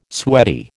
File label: "sweaty", American